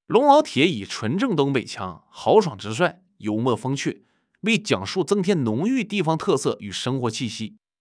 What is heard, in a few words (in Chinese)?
东北直率男, 方言; 中（东北）、英; longlaotie v2